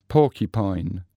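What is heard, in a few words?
"porcupine", English word; animals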